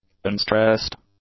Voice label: "unstressed", english; adjectives

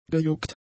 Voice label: "gejuckt", German; adjectives

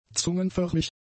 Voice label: "zungenförmig", German; adjectives

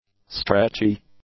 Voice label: "stretchy", English; adjectives

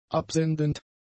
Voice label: "absendend", German; adjectives

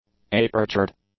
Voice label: "apertured", English; adjectives

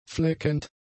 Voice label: "fleckend", German; adjectives

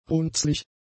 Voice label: "runzlig", German; adjectives

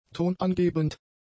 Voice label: "tonangebend", German; adjectives

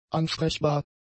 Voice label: "ansprechbar", German; adjectives

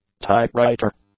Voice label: "typewriter", English; nouns

